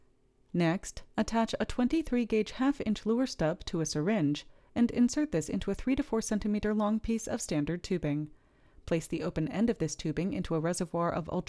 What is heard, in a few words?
Voiceover